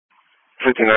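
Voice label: Police Patrol (Dispatch)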